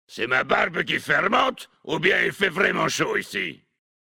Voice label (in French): Homme Blague; Barbe Fermente; Nain sombrefer